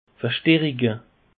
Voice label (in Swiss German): "verstarike", alsace; peche